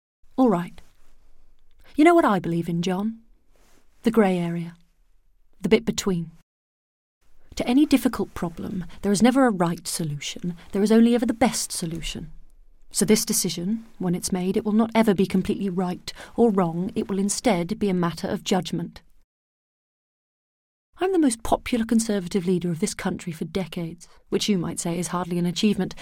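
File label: British English, Narration; voice demos; GoLocalise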